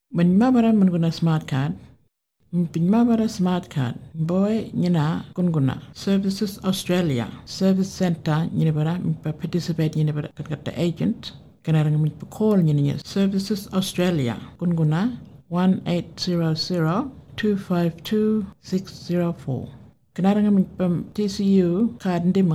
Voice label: burarra; getting a smartcard